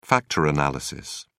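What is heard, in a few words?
"factor analysis", British English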